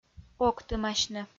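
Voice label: "октымасьны", Komi, female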